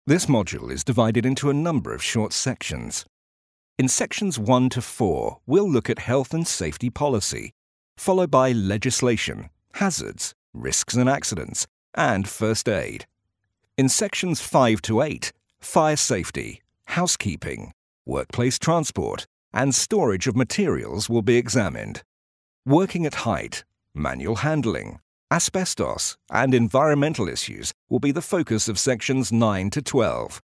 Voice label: male british voice over narrative, english british